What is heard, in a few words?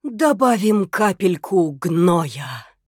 Female Human Play